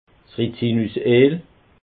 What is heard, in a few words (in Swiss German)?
"rizinuseel", alsace